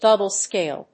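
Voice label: "double scale", English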